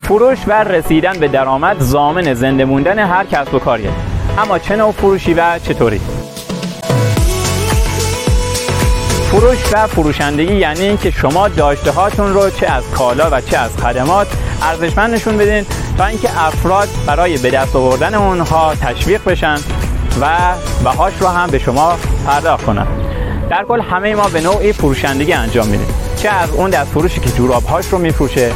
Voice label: Selling Voice